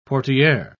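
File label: "portiere", British English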